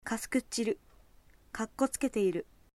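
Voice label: "showing off", Aizu dialect Japanese; kakkoutsuketeiru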